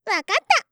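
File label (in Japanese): "わかった" Japanese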